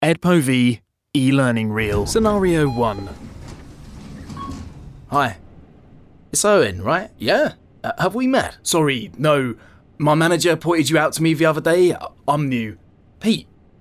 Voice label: ELEARNING